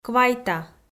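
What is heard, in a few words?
"квайта", Komi, female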